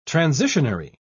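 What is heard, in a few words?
"transitionary", British English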